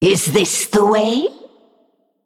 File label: Female Naga Attack